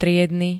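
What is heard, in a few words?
"triedny", Slovak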